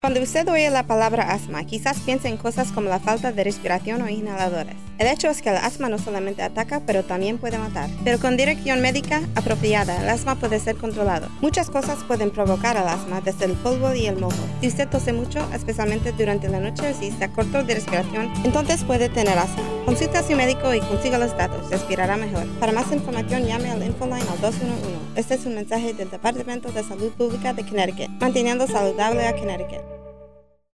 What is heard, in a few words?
asthma spanish; dph